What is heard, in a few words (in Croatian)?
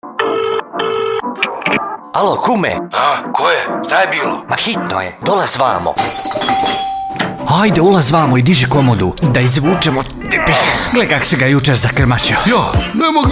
reklama; Autopraonica M